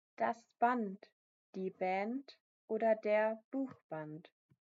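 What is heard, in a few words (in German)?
"Band", German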